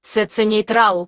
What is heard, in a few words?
girl